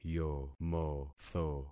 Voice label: "yomotho", concat syllables; Affixing experiment